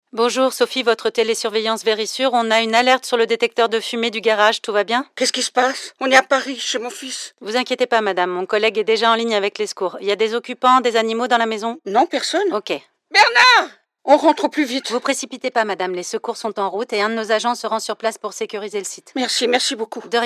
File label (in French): Spot Tele Incendie; Verisur